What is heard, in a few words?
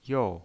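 "you" Mandarin Chinese pinyin voice, fourth tone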